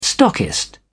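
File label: "stockist", English, British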